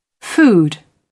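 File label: "food", British English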